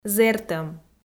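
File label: "зэртӧм", Komi, female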